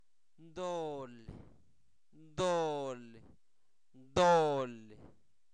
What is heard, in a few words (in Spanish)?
Zapoteco Albarradas, santo domingo albarradas; INALI; sustantivos; pecado